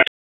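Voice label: Raleigh Police North District Tactical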